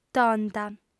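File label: "tonta", Sardinian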